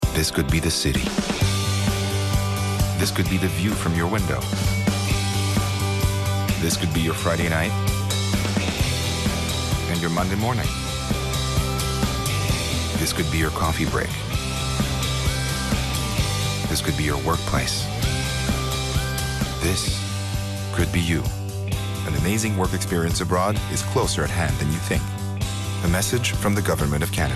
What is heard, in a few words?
English